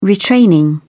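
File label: "retraining", British English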